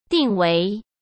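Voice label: "定为", Mandarin Chinese; chinese-flashcards; hsk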